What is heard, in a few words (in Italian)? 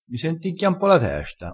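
"senticchia", dialettale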